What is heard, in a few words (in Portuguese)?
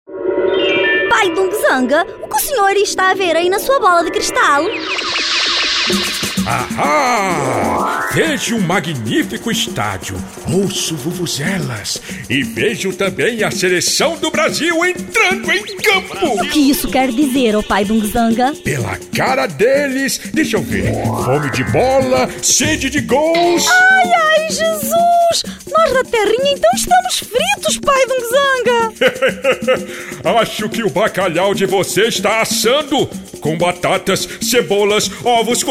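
Masculino, Português - Brasileiro, Chamada; Chamada BrasilxPortugual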